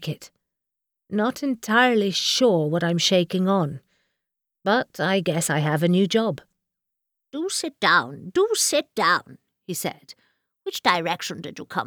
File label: Audiobook